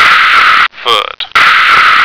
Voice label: "foot", American